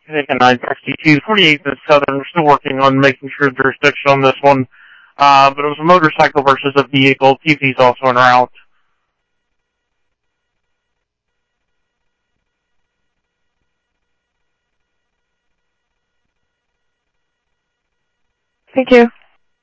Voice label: Tempe Police, scanner audio; SimulcastF-Tempe; TEMPE PD A04